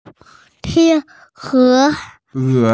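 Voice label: "swan", Mandarin Chinese, female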